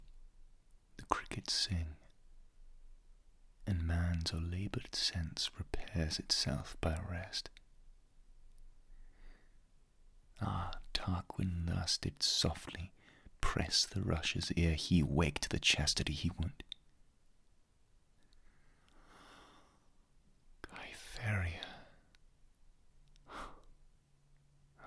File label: classic read; Iachimo